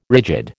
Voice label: "rigid", American